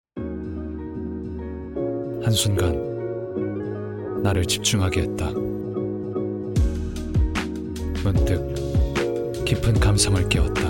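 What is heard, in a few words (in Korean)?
남성 (Male) 나레이션; 광고; 캐릭터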